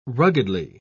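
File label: "ruggedly", English, British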